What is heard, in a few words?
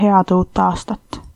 new year, estonian